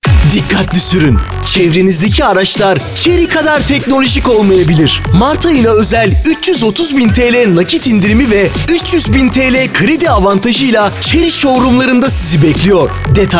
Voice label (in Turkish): reklam spot; chery mart 2025